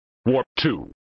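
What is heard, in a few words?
"Warp Two", male voice; Worf; flipper; sttng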